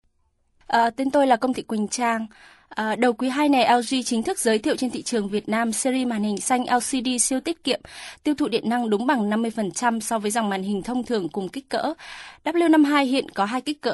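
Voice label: Vietnamese, female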